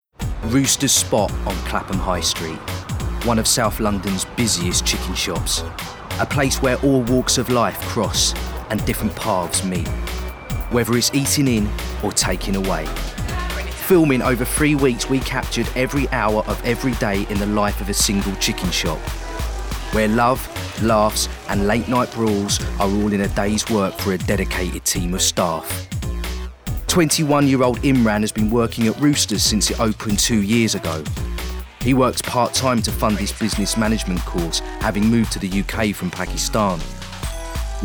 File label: narrative; Chicken Shop